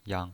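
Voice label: "yang" Mandarin Chinese pinyin voice, neutral tone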